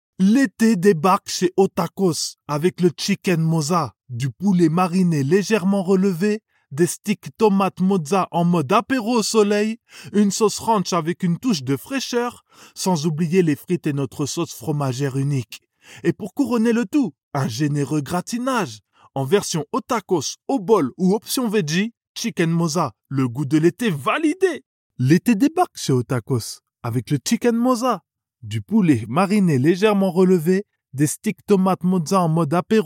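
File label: Fast food, Urban, male voice actor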